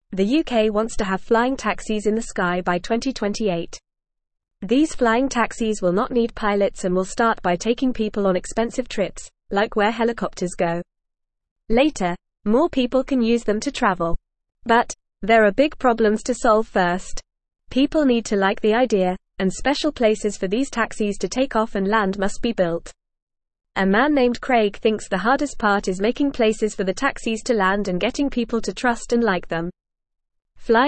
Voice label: English Beginner FAST Reading; English Newsroom; UK Plans for Flying Taxis by 2028

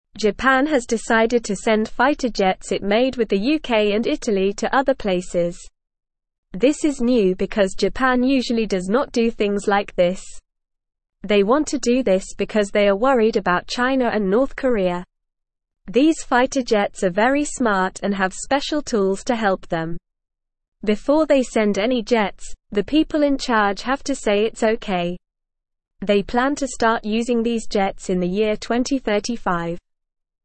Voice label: English Beginner NORMAL Reading; English Newsroom; Japan Sends Fighter Jets to Keep Peace with Other Countries

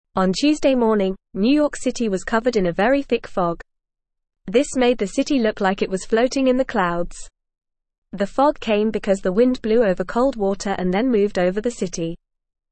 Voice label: English FAST Reading; New York City Covered in Thick Fog

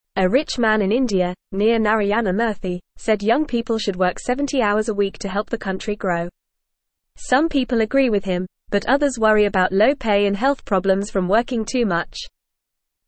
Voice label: English Lower Intermediate FAST Reading; Should People Work 70 Hours a Week

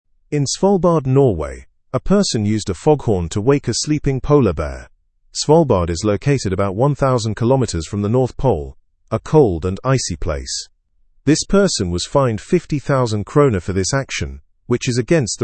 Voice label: english news lower intermediate fast; foghorn startles sleeping polar bear

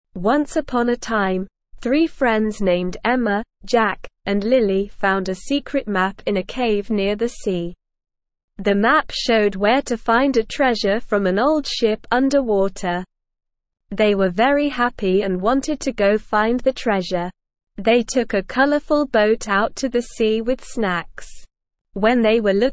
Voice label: ESL Short Stories for Kids Beginner SLOW Reading; The Secret of the Sunken Ship

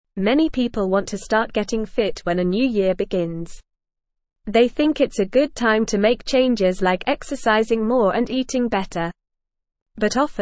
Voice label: English Lower Intermediate NORMAL Reading; English Newsroom; Start Exercising Before the New Year Rush; Many people want to start getting fit when a new year begins. They think it’s a good time

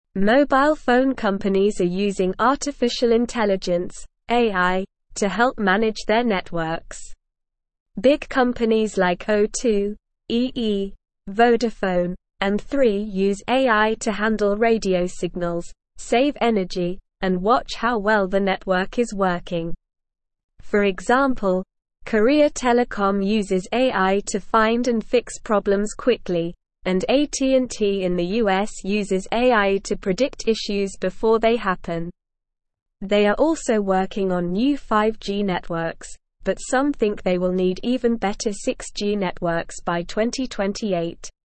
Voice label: English SLOW Reading; Phone companies use smart computers to help network

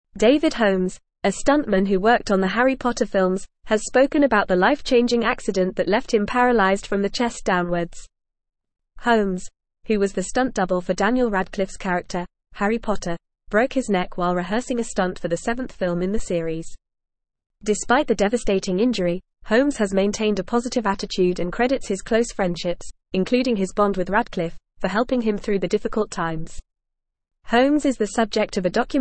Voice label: English Newsroom Advanced FAST Reading; David Holmes The Boy Who Lived

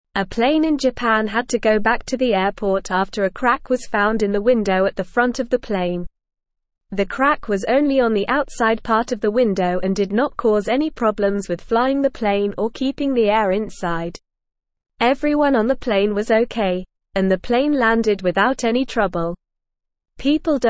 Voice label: English Lower Intermediate NORMAL Reading; Plane in Japan goes back because of cracked windo; English Newsroom

